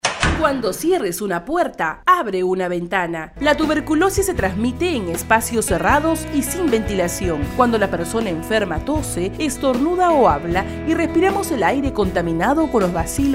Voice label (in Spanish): Spot de radio; CERO TB; tb en el trabajo